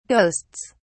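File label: "ghosts", British English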